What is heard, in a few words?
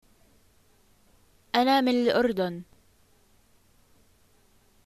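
I am from, arabic, Jordanian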